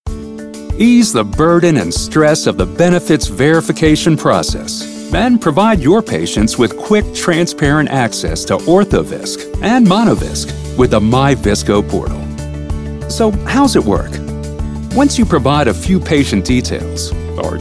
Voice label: American English Voiceover Sample

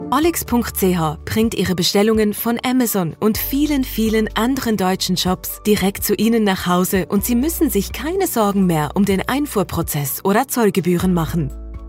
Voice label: Brisk introduction German Female Voiceove

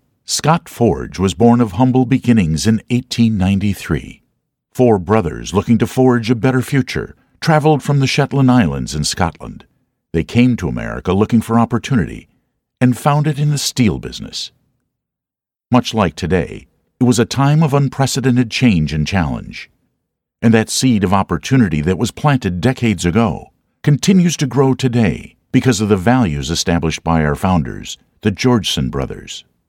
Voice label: American English Voiceover

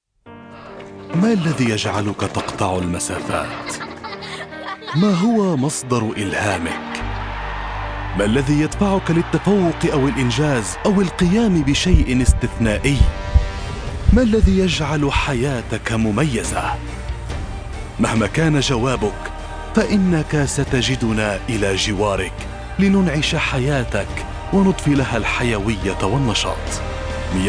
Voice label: Arabic voice over Warm calm emotional

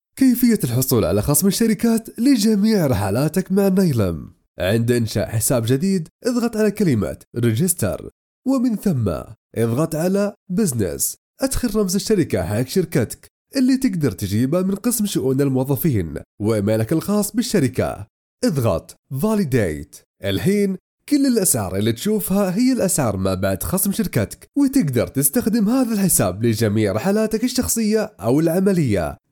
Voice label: Arabic Voice Over

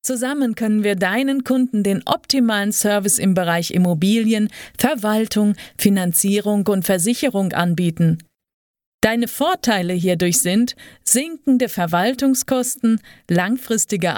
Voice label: German Voice Over

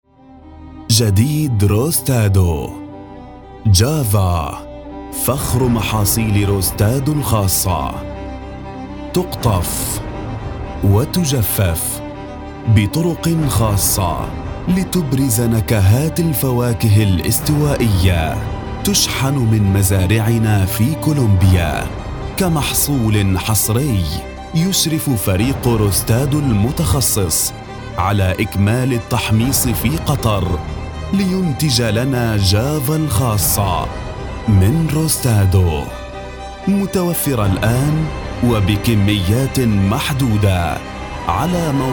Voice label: Arabic Voice Over epic

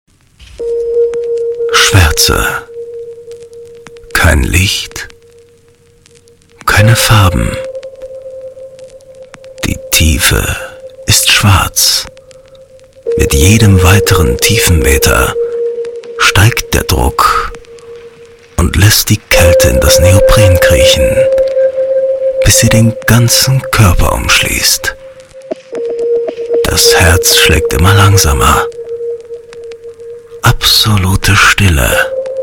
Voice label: Deep affective

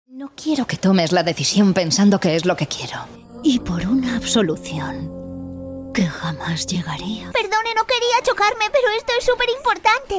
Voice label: Dubbing character voiceover

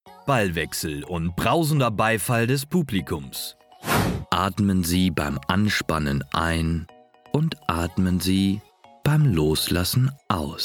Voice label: German Voice Over

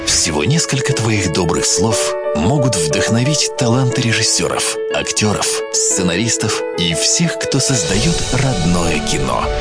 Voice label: warm cal

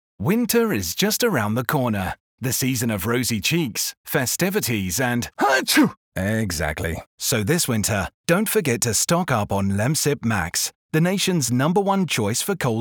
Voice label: english voice over cheerful energetic

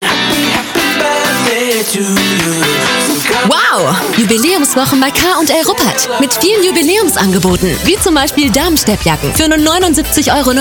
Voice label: advertising fresh

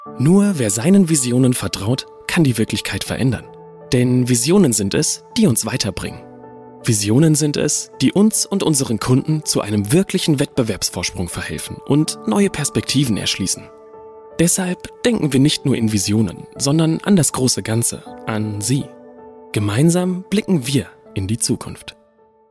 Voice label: English Voice Over

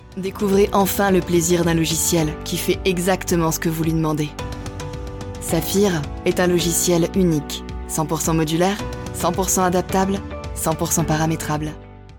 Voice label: Warm and Cal

